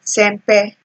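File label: "sempe", Nigerian Pidgin, female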